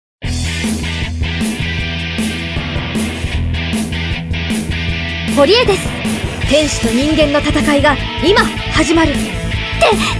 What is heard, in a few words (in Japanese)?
ナレーション